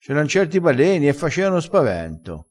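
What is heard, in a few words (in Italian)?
"faceano", vocabolario dialettale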